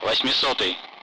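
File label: Speech, Russian, 8th; Allied Flight; DCS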